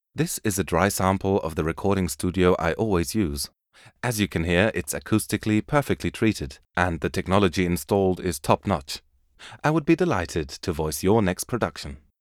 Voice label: dry studio sample

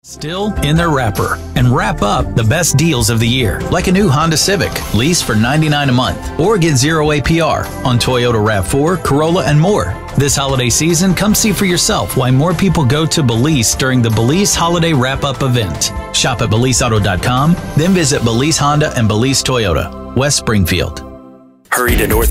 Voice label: TV Spot

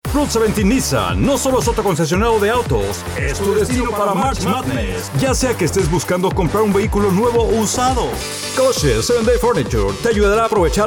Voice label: Spanish

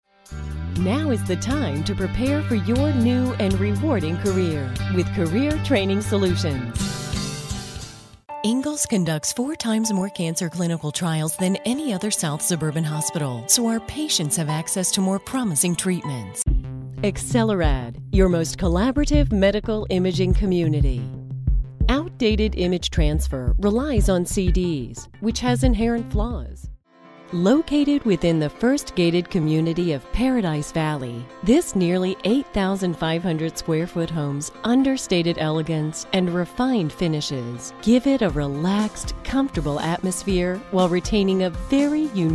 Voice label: Explainer Video

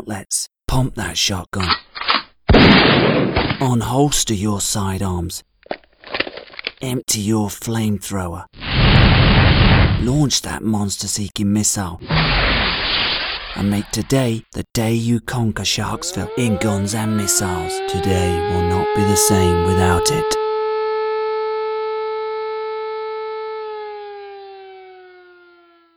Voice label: Game Trailer

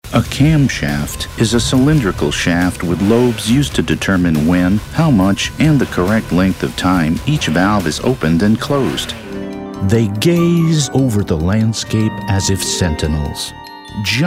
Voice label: Narr

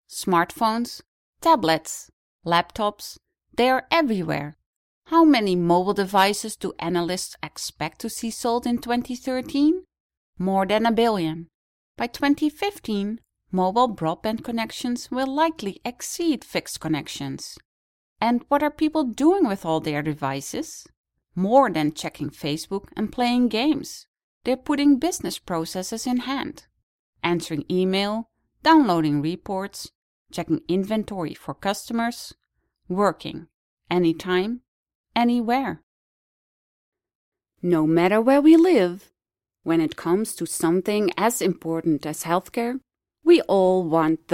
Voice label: English Female

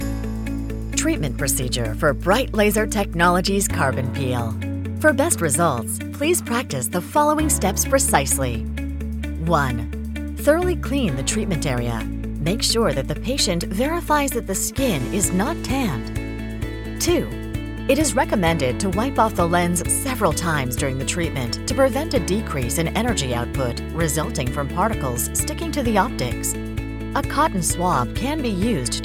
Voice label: Medical Instructional

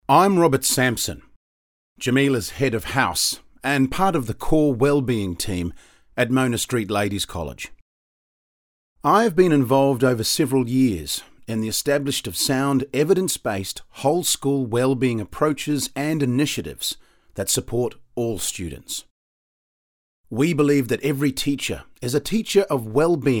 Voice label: Online Learning Module audition

